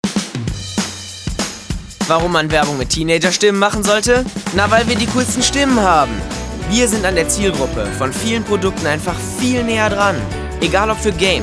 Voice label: Werbung Teenagerstimmen

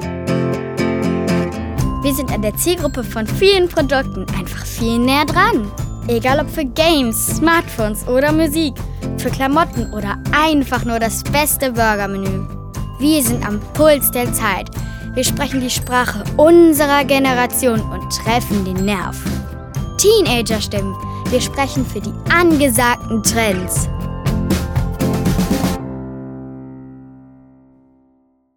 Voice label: Werbung Kinderstimmen

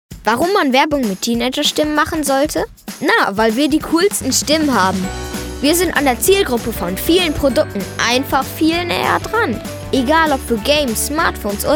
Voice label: Werbung

